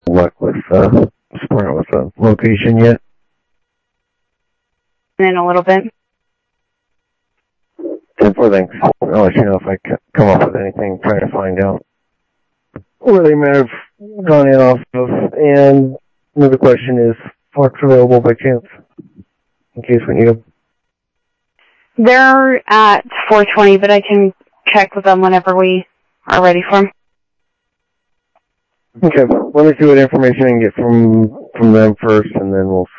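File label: Sheriff Lake Patrol, scanner audio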